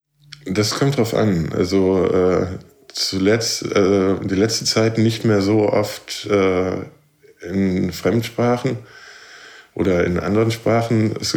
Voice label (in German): deutsch esperanto